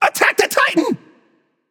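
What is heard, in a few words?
vox attack male; smite; agni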